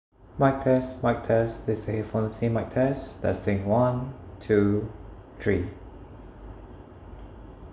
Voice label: Quiet; Powerbeats Pro